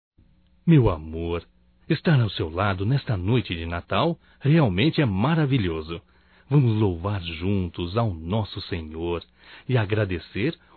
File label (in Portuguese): Romântico Masculino; Natal e ano novo; Telemensagens 2017